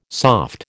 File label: "soft", American